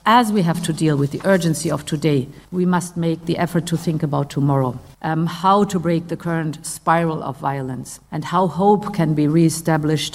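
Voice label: English; Leyen; Conflict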